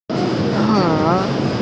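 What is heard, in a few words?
"ke3", Mandarin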